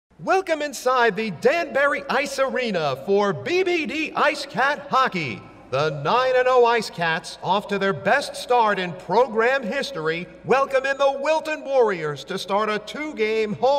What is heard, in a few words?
Public Address